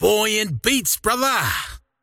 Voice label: croak big; dota; largo